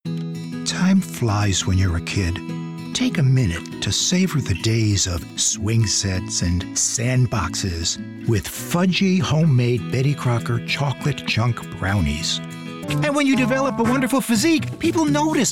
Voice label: Commercial, Men